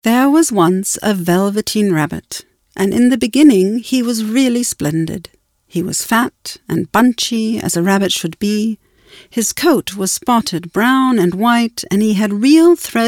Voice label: AudioBook Kids; VelveteenRabbit; LOFT VOICES